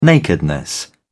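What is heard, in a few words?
"nakedness", British English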